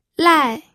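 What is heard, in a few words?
"lai", fourth tone, pinyin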